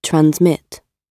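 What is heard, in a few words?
"transmit", British English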